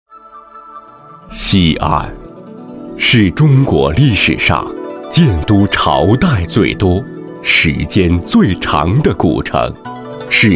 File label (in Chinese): 男 专题 西安专题